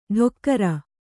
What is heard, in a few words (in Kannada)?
"ಡೊಕ್ಕರ", Kannada